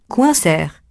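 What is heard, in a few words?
"coincer", French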